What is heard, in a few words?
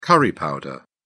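"curry powder", British English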